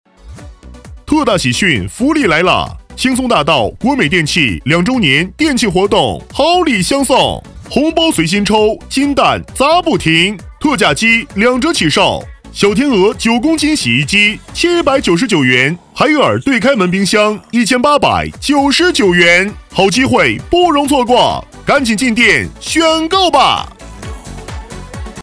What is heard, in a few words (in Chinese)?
促销; 国美电器